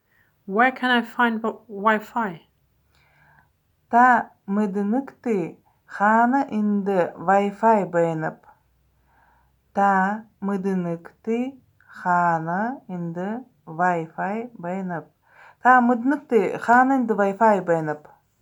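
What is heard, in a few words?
Buryat Where can I find Wi-Fi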